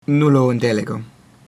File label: no understand, latin